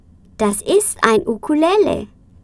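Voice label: THIS IS A UKULELE, German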